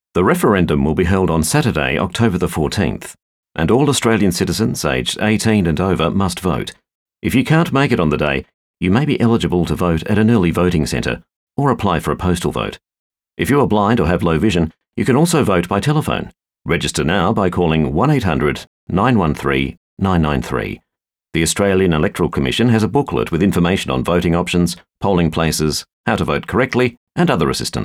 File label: radio; RPH radio